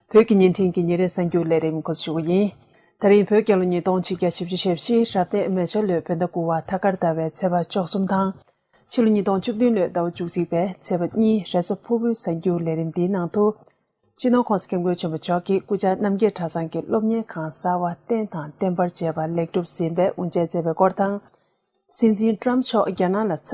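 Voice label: Tibetan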